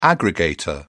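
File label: "aggregator", British English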